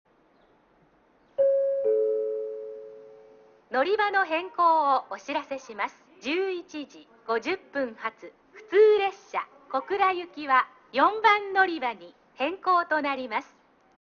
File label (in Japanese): のりば変更放送, 中津駅; 1番のりば 普通 小倉行き 4番のりばに変更